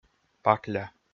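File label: "пакля", Komi, male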